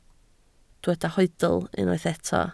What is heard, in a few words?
"hoedl", Welsh